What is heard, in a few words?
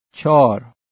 "char", Farsi